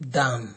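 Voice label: "damn", Nigerian Pidgin, male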